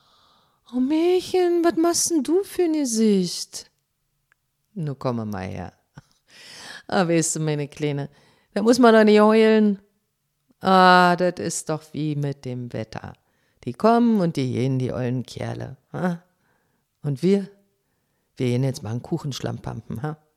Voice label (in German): Dialekt Berlin, sprecherin